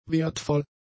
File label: "wertvoll", German; adjectives